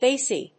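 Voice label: "Bassy", English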